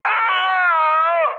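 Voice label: damaged pilot, Czech, mood med; speech; War Thunder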